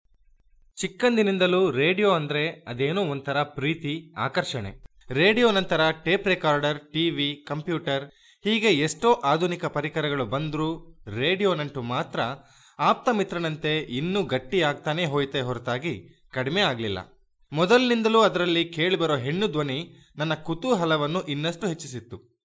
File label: kannada voice locutor kannada; locutor indio de dialecto Kannadan